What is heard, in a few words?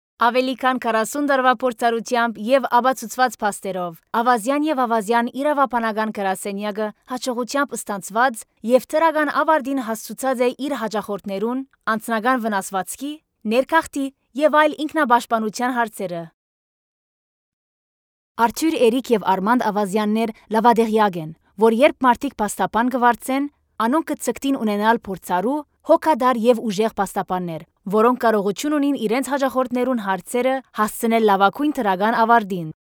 On Hold Messaging Armenian